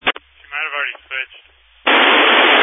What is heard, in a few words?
Family Radio Service (FRS)